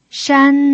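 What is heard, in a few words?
"shan" Mandarin Chinese, first tone, pinyin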